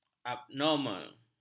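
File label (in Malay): "abnormal", Malay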